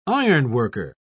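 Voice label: "ironworker", British English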